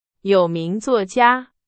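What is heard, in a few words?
Chinese, example sentence; hsk2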